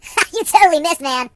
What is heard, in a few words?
Missed, Surfer